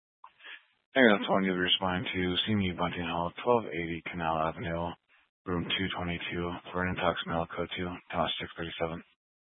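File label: Fire Dispatch, scanner audio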